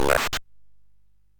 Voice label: MOS6581R3, Electronic Speech Systems